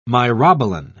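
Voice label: "myrobalan", British English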